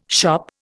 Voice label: "shop", Indian

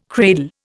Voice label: "cradle", Indian

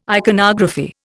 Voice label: "iconography", Indian

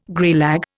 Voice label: "greylag", Indian

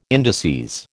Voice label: "indices", American

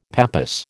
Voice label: "pappus", American

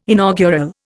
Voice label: "inaugural", Indian

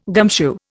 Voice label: "gumshoe", Indian

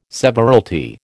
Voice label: "severalty", American

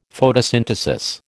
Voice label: "Photosynthesis", American

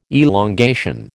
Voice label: "elongation", American

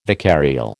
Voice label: "vicarial", American

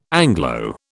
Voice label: "Anglo", British

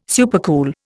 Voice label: "supercool", Indian

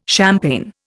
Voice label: "champagne", Indian

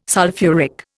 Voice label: "sulphuric", Indian

